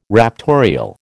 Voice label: "raptorial", American